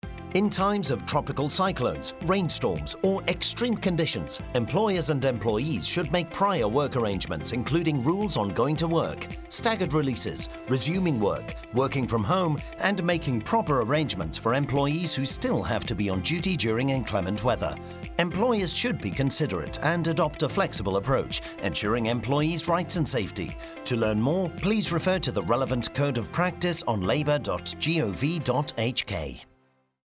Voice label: Typhoon Radio ENG; Work Arrangements in Times of Tropical Cyclones, Rainstorms and “Extreme Conditions”; TV and Radio Announcements